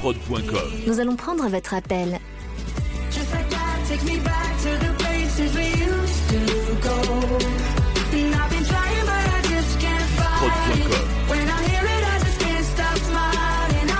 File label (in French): Nail Bar Attente Longue, telephonie svi; Gemo Gemozac